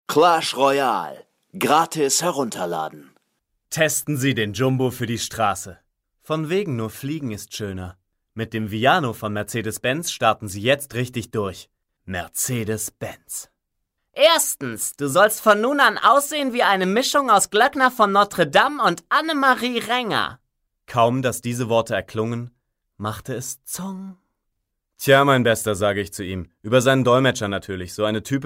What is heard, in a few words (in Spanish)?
Locutor aleman; alemania